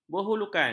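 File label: "berhulukan", Malay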